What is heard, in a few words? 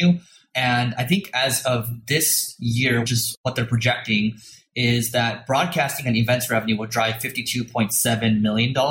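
Bad VO Marketing School Podcast, mono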